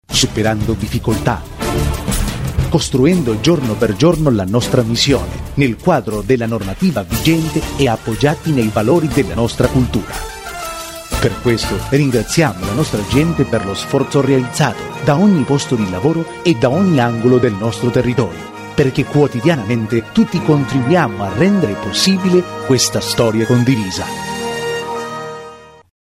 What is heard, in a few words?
Italian, Male